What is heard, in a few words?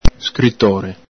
"scritore", Veneto